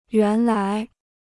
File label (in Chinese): "原来", Chinese